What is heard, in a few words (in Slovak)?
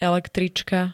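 "električka", Slovak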